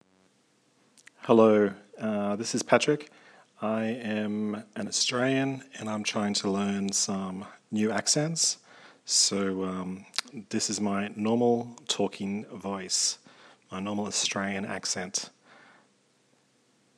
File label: normal accent